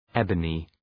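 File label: "ebony", English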